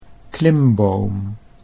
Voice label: "klimboom", Dutch